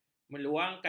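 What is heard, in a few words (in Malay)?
"meluangkan", Malay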